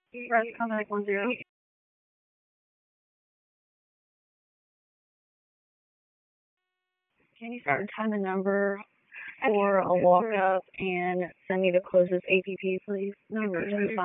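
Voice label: EMS Dispatch, p25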